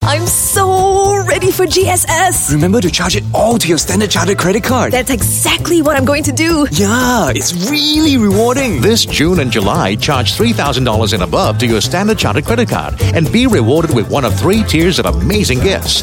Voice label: Asian English F; Standard Chartered Bank

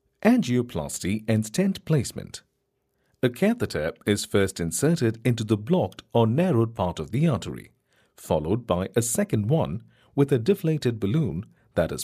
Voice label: Indian English eLearning

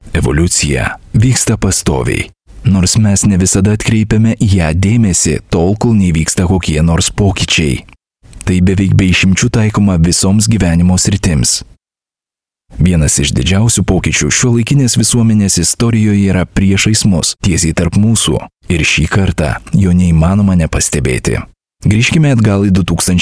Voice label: Lithuanian Documentary, Male

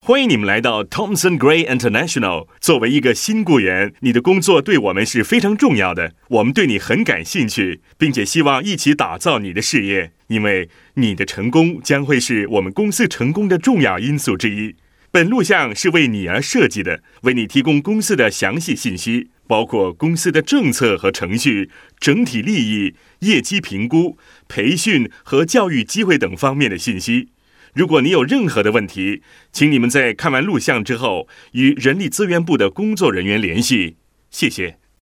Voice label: External Mandarin, Male; China

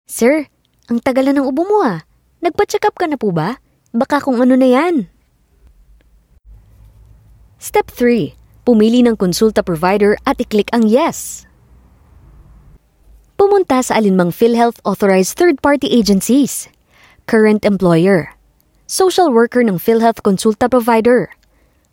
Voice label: Tagalog Voice Sample

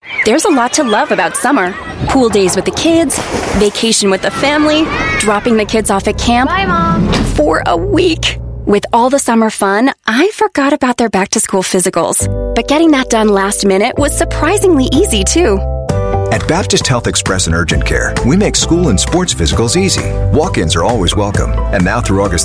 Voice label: US English Mom Read, Female; Baptist Health

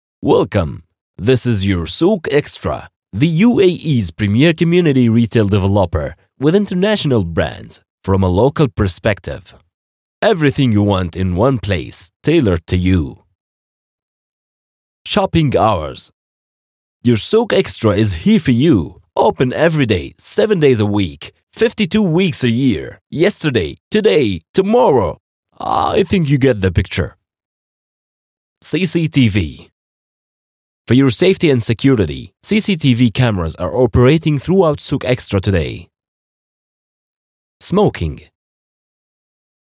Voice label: Arabic English UAE, Arabic Male; Souq Extra

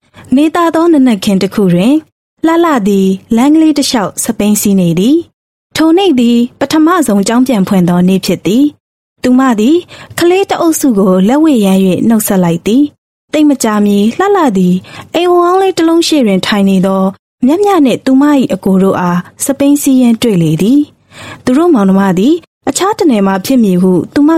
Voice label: Burmese Female Narration

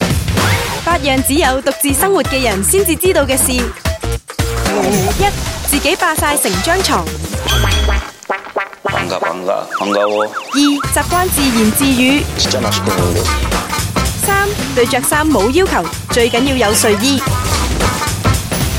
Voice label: Cantonese Channel VARIETY WEEKEND; 8 THINGS

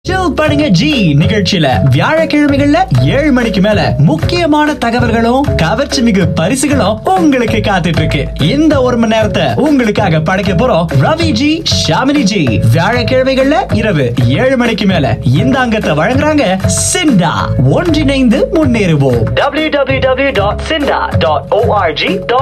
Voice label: Tamil Radio Commercial, India Male

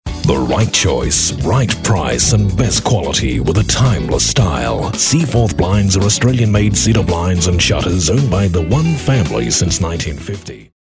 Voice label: AU English

